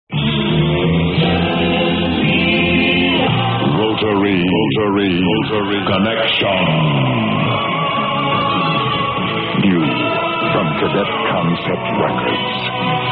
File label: lp ad; psychedelic promos and radio spots